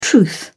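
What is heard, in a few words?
"truth", British English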